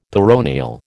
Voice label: "peroneal", American